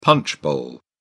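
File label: "punch bowl", British English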